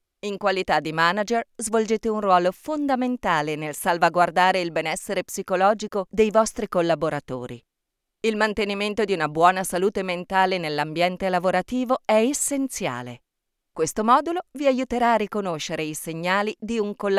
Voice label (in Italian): ITA E-learning